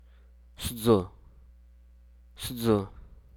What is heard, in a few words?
"Great Barbet", Hruso